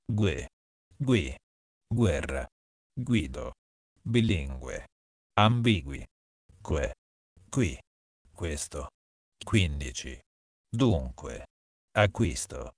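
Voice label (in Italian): gue gui, italiano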